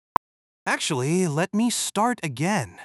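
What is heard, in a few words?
speaker male fast mid, start again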